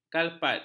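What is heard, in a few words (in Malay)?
"kalpat", Malay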